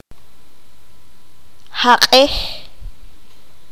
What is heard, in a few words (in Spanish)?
"Adorar", qanjobal